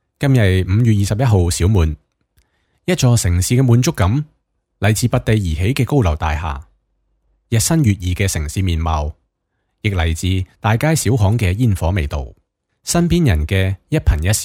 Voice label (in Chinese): 粤语文艺